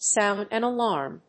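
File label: "sound an alarm", English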